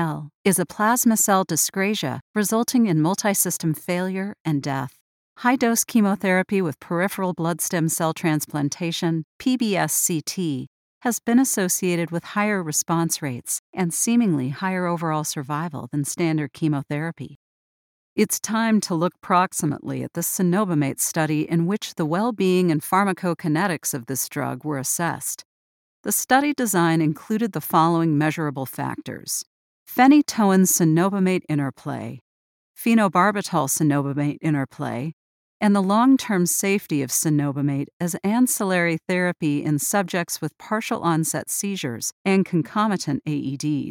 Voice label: medical narration